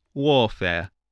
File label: "warfare", spelling